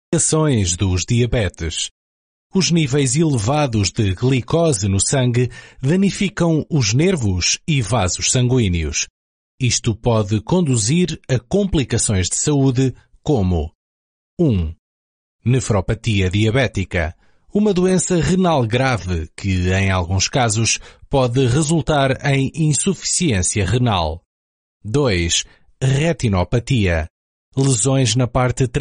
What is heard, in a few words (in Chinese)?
葡萄牙语男, 外语; 小语种